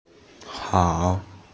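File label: "hao3" Chinese, female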